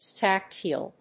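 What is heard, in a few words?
"stacked heel", English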